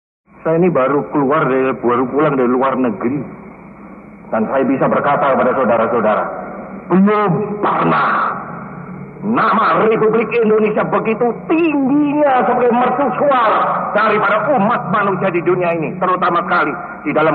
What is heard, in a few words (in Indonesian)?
Pidato, soekarno; Maulid Nabi 1963